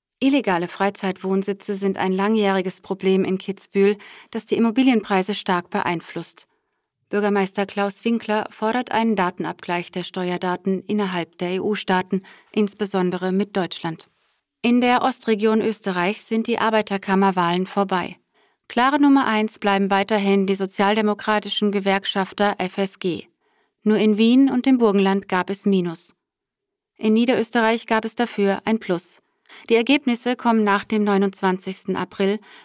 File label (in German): Nachrichten; Demo